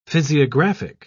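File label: "physiographic", British English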